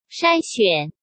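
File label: "筛选", Mandarin Chinese; hsk; chinese-flashcards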